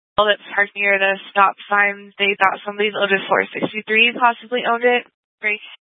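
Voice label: Incident Tactical, scanner audio recording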